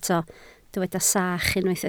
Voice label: "sach", Welsh

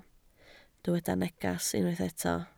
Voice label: "neges", Welsh

